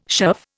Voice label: "shove", Indian